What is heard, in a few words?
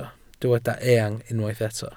"eang", welsh